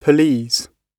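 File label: "please", British English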